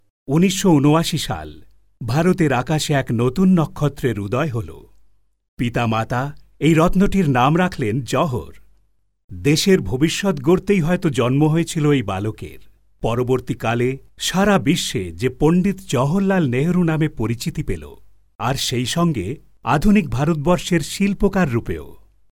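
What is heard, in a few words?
Bengali narration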